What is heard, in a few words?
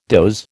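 "doze", American English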